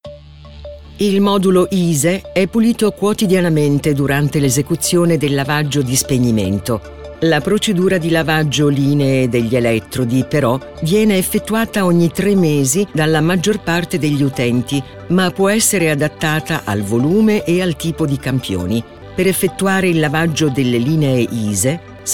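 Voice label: Italian E-Learning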